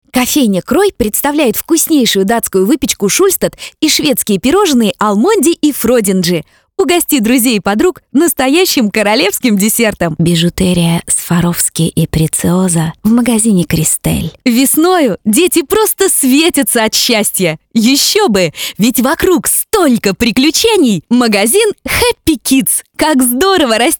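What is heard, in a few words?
Russian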